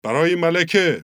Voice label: farsi Military; age2

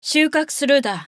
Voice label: japanese Female villager; age2

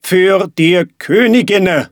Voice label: german King; age2

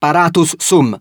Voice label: latin Male villager; age2

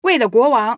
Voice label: chinese Queen; age2

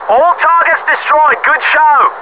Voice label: MISSION DONE, British; combatfs

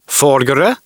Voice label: Gaelic Male villager; age2

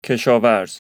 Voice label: farsi Male villager; age2

